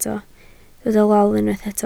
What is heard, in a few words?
"lol", Welsh